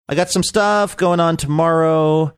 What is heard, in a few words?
saying i get some stuff going on tomorrow sing songy